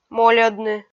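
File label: "мольӧдны" Komi, female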